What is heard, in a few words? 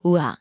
"우아", korean; vowel